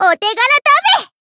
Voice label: finish jp